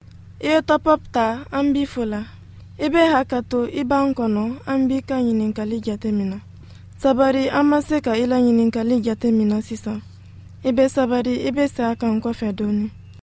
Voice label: Mandinka